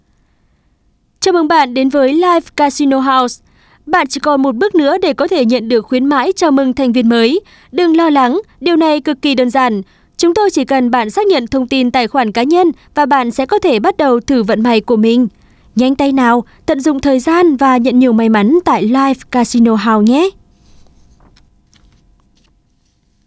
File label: North Live Casino House Msg Female, North Vietnamese